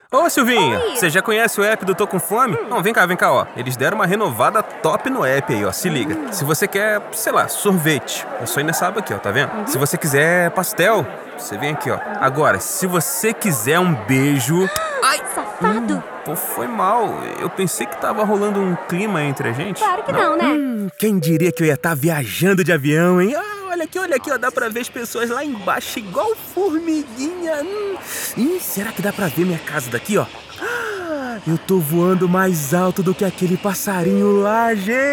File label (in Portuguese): COLOQUIAL TEATRAL; locutor profissional